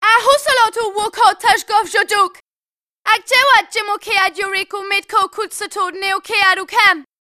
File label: Voice Distress Signal; GB